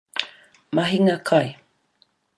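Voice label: "mahinga kai", Maori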